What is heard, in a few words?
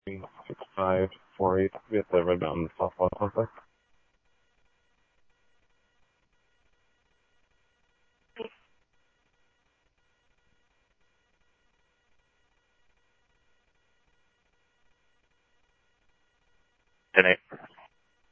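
Mesa police department, scanner audio trunk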